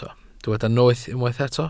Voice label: "noeth" Welsh

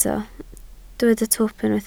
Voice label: "twp", Welsh

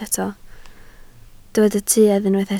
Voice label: "tuedd", Welsh

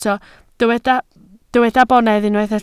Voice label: "bonedd", Welsh

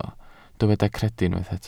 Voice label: "credu" Welsh

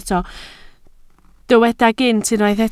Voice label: "gynt", Welsh

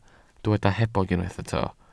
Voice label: "hebog", Welsh

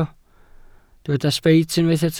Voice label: "sbeit", Welsh

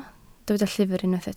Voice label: "llyfr", Welsh

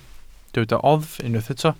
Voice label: "oddf" Welsh

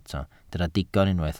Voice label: "digon", Welsh

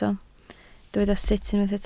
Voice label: "sut", Welsh

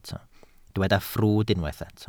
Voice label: "ffrwd" Welsh

